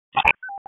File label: WC EMS ALERT, p25; wake